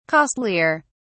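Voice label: "costlier", British English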